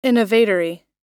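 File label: "innovatory", US English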